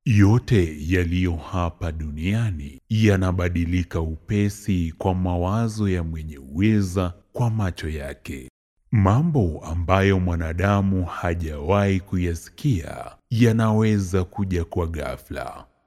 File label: locutor swahili voiceover